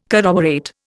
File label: "corroborate", Indian